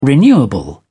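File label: "renewable", English, British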